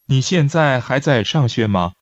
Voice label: Mandarin Conversation